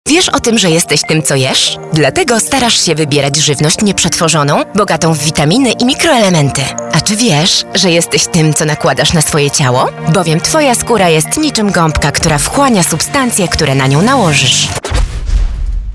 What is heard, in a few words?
POLISH FEMALE